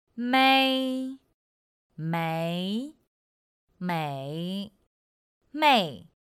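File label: "mēi méi měi mèi", Chinese; pinyin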